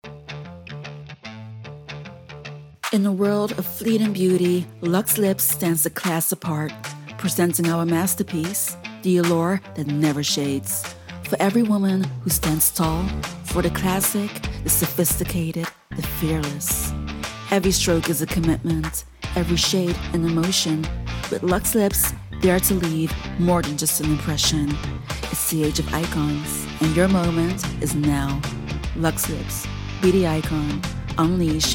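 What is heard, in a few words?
female voice, English accent, Dutch; GoLocalise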